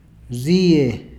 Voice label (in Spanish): rie, Peru Cuzco; ríe [ˈʐie]